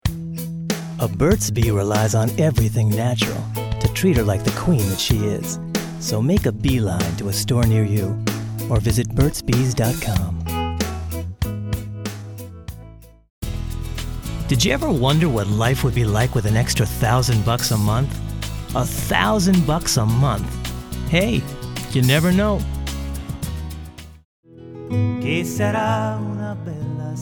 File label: Voiceover reel